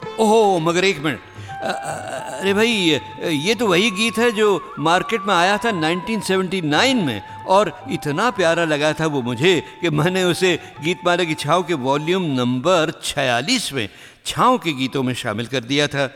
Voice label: commentary